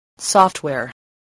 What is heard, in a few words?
"software", English voice